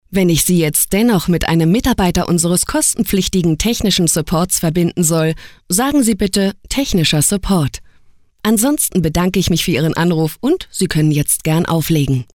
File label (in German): Telefonansage